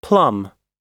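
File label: "seaweed", us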